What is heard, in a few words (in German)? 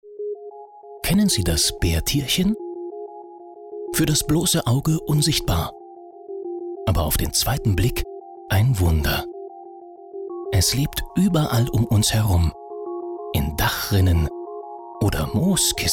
reportage baertierchen